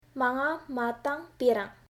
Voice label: "Matang", Uyghur